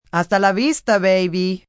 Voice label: "Hasta La Vista baby", Goodbyes, female, foreign, Spanish; see you again, baby; human voice speaking; VoiceBox; Twistedtracks